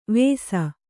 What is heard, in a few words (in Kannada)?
"ವೇಸ", Kannada